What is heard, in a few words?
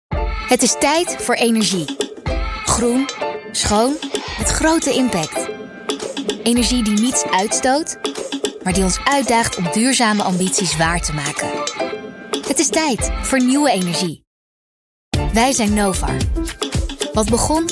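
Dutch corporate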